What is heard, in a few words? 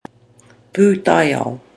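"putaiao", Maori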